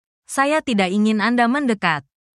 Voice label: indonesian phrases